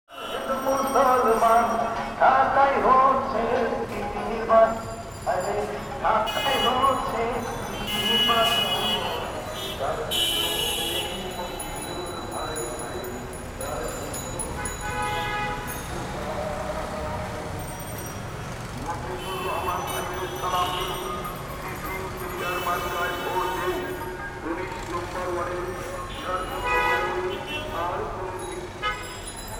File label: Election propaganda, Bangladesh; Les Cartes Postales Sonores; NOISE ON EARTH Vol2